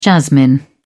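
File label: "jasmine", British English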